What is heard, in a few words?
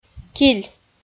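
"киль", Komi, female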